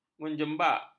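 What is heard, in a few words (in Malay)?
"menjembak", Malay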